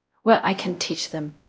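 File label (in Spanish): Voice Acting, es, female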